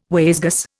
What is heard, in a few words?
"wayzgoose", Indian